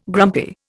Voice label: "grumpy", Indian

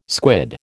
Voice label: "squid", American

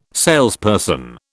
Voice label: "salesperson", British

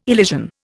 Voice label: "elision", Indian

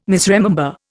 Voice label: "misremember", Indian

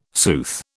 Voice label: "sooth", British

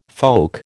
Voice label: "folk", American

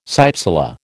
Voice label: "cypsela", American

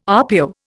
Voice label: "RPO", Indian

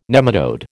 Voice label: "nematode", American

